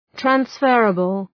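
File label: "transferable", English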